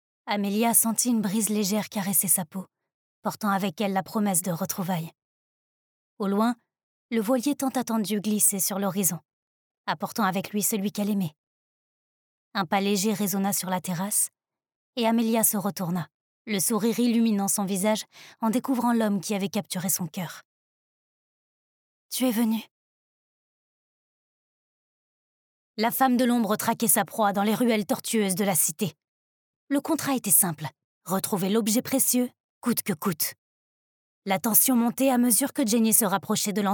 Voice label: french audiobooks